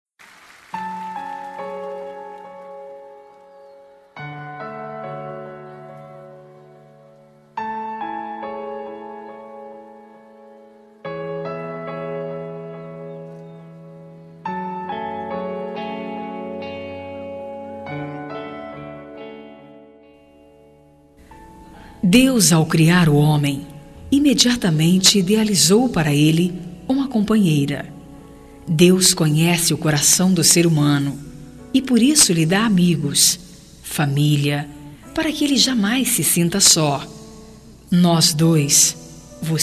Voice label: Reconciliação Amizade Feminino

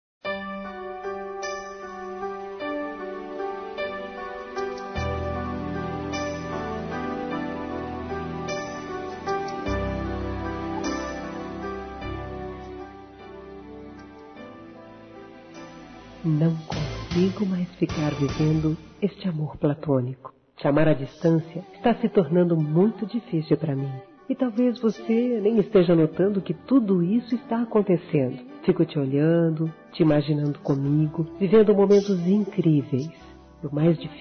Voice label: Declaração Feminino; Telemensagens 2017; Românticas

